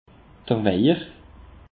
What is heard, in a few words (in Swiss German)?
"wejer", alsace; peche